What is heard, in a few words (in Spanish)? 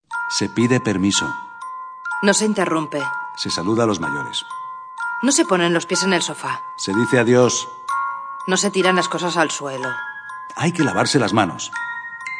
Campañas Castellano